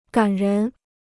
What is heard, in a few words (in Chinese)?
"感人", Chinese